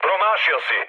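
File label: Serbian bomb failure mood high, speech; War Thunder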